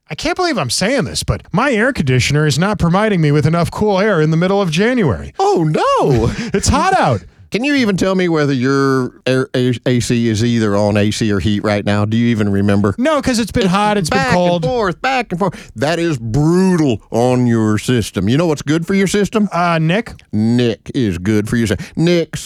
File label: RADIO AD; nicks plumbing radio ads